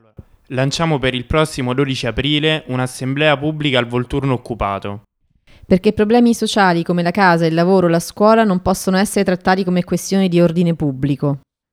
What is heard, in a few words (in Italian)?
voce appuntamenti; processi 15 ottobre